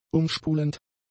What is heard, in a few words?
"umspulend", German; adjectives